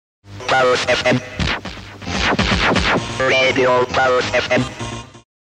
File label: pulse fm, pirate radio; mix tapes; pulse and chillin; adverts